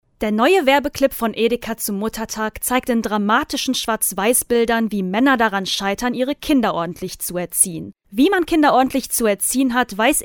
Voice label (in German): Kommentar; Einzelhandelswerbung